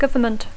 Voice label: "government", English

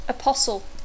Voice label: "apostle", English

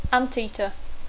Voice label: "anteater", English

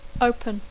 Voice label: "open", English; adjectiu; obert; obrir; inaugurar

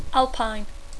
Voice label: "alpine", English

